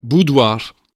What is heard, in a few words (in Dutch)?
Man uitspraak boudoir